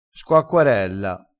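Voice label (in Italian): "squacquarella", dialetto crespinese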